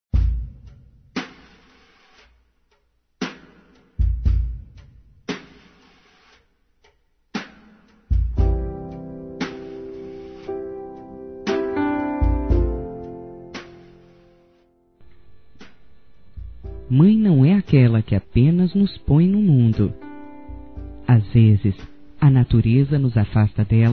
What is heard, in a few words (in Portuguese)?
Dia das Mães adotiva feminino; Telemensagens 2017